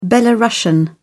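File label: "belarusian", British English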